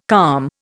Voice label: "calm", Indian

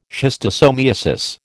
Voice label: "schistosomiasis", American

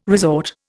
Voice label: "resort", Indian

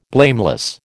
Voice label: "blameless", American

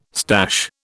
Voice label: "stash", British

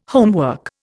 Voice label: "homework", Indian

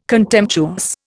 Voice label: "contemptuous", Indian

